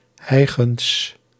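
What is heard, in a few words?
"hijgends", Dutch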